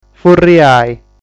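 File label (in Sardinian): "Furriai", Sardinian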